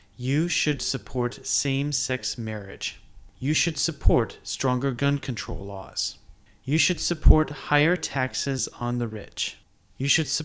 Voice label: male HIGH, voice pitch; voice pitch 2018